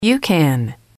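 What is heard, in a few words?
"you can", English, female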